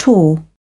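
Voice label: "top", British English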